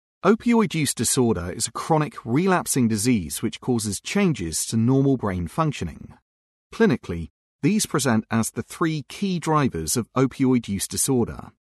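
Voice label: English UK Male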